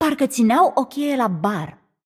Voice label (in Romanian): Room2 VO Object Interact, vo, ro-ro